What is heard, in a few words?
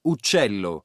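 "uccello", Italian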